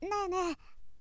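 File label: boy, "nene"; shonen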